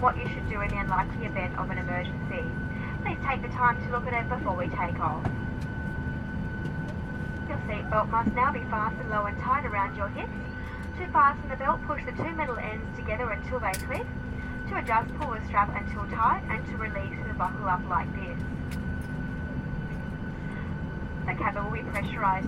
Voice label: AIRCRAFT BOEING 737-700 PREFLIGHT SAFETY BRIEFING STEREO, passenger plane; 737-700 passenger plane samples; Airplanes